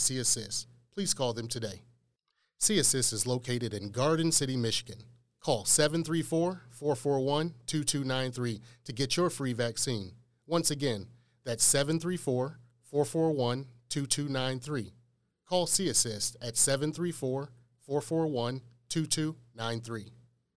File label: Keep It Going Black American Male